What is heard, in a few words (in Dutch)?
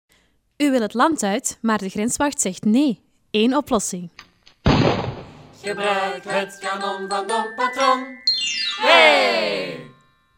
Reklamespotje